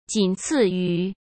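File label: "仅次于", Mandarin Chinese; chinese flashcards; hsk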